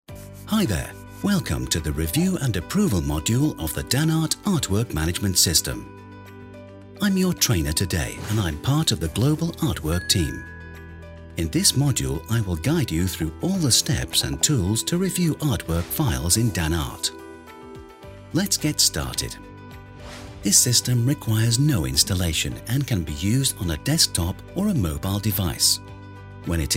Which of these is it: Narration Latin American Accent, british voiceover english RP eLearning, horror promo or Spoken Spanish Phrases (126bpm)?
british voiceover english RP eLearning